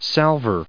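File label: "salver", English, us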